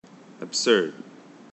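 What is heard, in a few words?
"absurd", American, male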